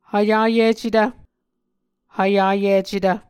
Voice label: "hvyayicetv", Muscogee